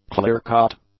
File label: "clear-cut", English; adjectives